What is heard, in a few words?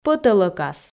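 "пӧтӧлӧкас" Komi, female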